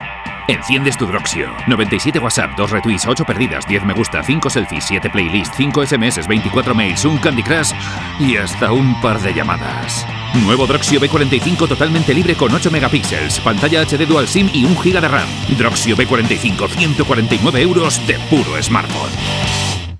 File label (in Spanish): cuña publicidad radio